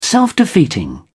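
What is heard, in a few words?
"self defeating", British English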